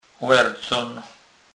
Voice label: "lercon", kurpiow

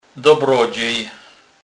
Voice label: "dobrodzej", kurpiow

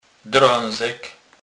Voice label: "drezek", kurpiow

